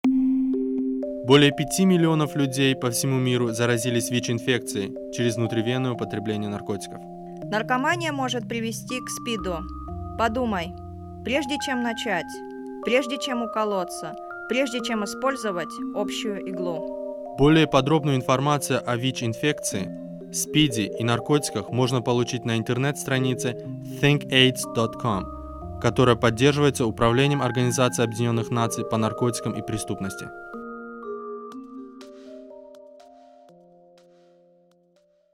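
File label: spot russian music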